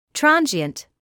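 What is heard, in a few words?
"transient", British English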